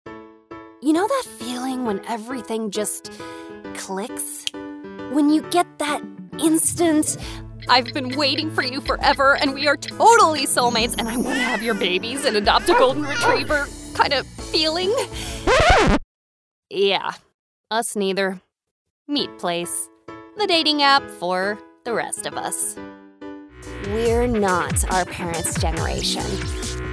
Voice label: American English Commercial